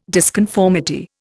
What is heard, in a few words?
"disconformity", Indian